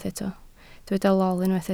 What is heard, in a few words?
"lol", Welsh